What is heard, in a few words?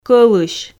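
"кӧлысь", Komi, female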